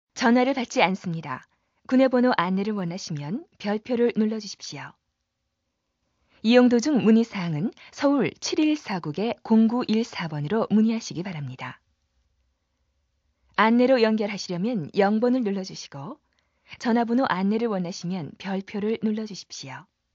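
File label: Korean female; ARS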